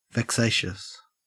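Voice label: "vexatious", Australian English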